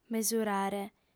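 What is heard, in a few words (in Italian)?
"mesurare", lingua sarda, fem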